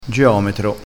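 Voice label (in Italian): "geometro", vocabolario dialettale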